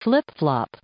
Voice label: "flip flop", English